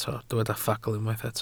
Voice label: "ffagl" Welsh

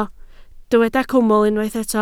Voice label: "cwmwl", Welsh

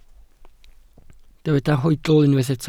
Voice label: "hoedl", Welsh

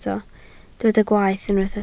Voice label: "gwaeth", Welsh

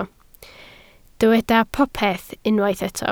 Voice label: "popeth" Welsh

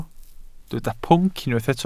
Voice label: "pwnc", Welsh

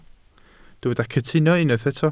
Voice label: "cytuno", Welsh

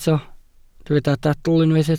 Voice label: "dadl" Welsh

